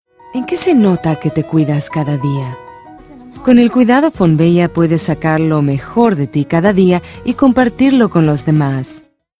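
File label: Latin American Spanish Commercial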